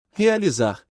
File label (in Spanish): Realizar en portugues